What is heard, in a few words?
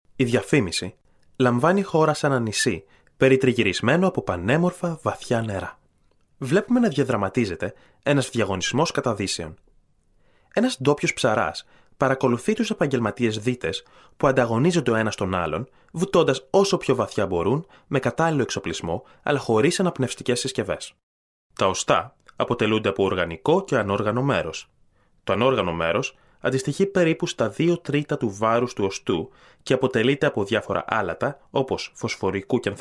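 Greek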